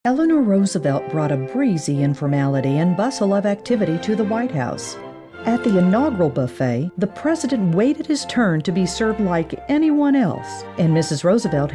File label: narrative